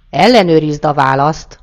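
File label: check answer, Chinese; gcompris-data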